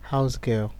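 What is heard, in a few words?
"housegirl", Nigerian Pidgin, male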